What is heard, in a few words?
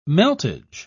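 "meltage", British English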